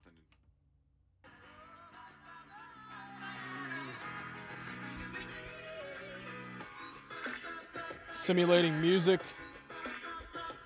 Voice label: isolation popped Ps; Shure KSM8